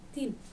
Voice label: "thin", Dinka

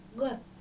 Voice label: "gɔ̈th", Dinka

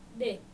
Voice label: "de", Dinka

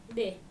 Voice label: "de", Dinka

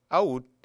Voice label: "luel", Dinka

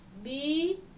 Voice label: "biy", Dinka

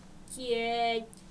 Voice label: "ciɛɛc", Dinka

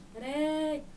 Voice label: "rɛ̈ɛ̈c", Dinka

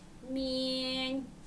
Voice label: "miɛɛny", Dinka

